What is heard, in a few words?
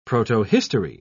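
"protohistory", British English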